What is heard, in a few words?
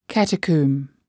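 "catacomb", English word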